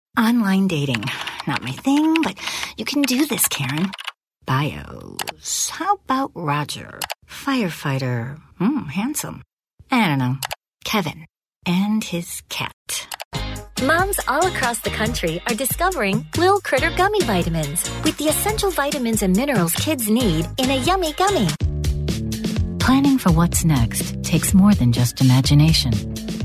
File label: comm VO